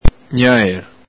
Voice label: "gnaér", Venetian

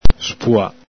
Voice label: "spua", Veneto

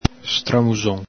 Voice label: "stramuxon", Veneto

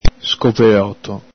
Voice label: "scopeloto", Veneto

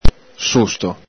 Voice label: "susto", Veneto

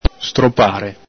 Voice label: "stropare", Veneto

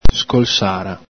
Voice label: "scolsara", Veneto

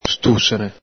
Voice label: "stusene", Veneto

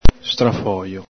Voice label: "strafhojo", Veneto

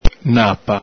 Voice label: "napa", Venetian

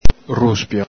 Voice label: "ruspio", Veneto

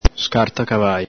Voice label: "scartacavali", Veneto

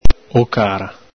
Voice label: "ocara", Venetian

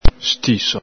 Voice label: "stiso", Veneto

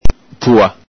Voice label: "pua", Venetian

